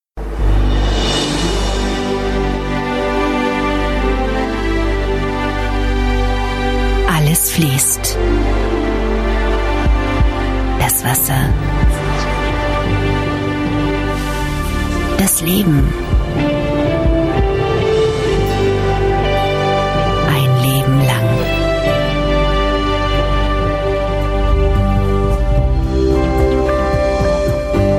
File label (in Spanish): locutor alemana